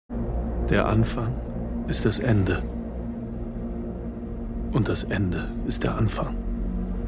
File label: german Sentence